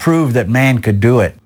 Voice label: LoFi Phrases, LoFi Vocals; Vengeance Samples